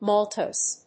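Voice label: "maltose", English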